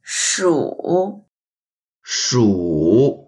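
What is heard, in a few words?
"shu3", Mandarin Chinese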